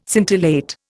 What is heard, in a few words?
"scintillate", Indian